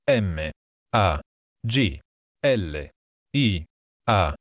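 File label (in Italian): "maglia", italiano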